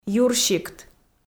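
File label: "юрсикт", Komi, female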